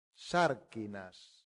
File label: "sarcinas", latin; santillana